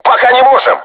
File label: voice message art reloading, crew tanks russian